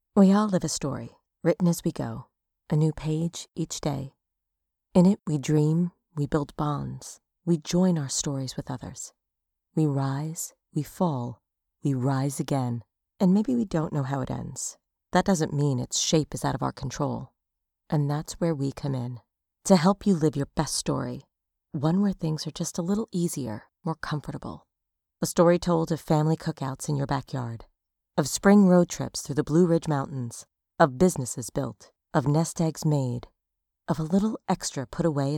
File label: english us and canada female narrative